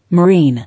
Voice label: "Marine", English